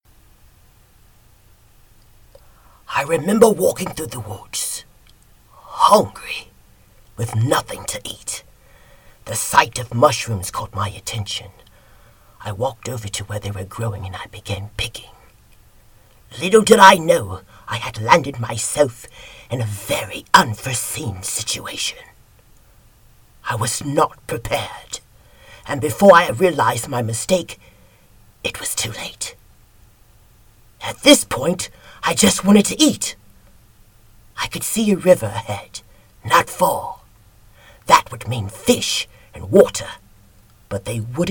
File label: Spooky Villains Confession